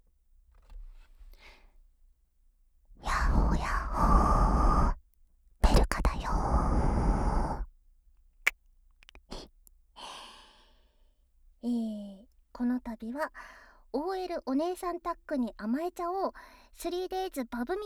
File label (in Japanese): フリートーク; ASMR